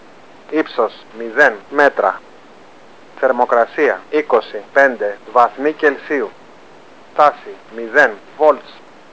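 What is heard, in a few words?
msg gr m, Greek, male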